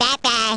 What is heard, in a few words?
baby "bye-bye"; baby luigi